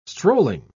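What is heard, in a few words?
"strolling", British English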